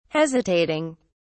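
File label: "hesitating", British English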